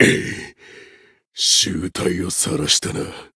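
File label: Voice win barely, Japanese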